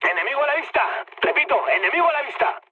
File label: enemy detected, mood med, speech; War Thunder